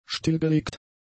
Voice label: "stillgelegt", German; adjectives